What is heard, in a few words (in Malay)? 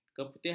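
"keputihan", Malay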